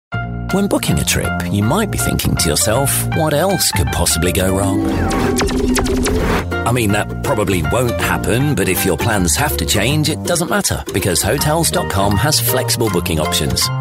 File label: commercial